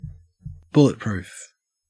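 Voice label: "bulletproof", Australian English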